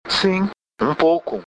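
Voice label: yes a little, Brazilian Portuguese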